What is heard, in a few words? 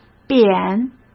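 "扁", Mandarin Chinese; hsk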